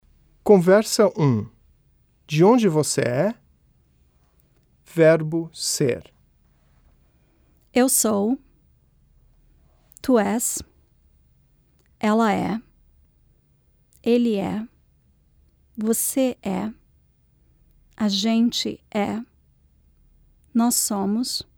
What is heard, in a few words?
Conversation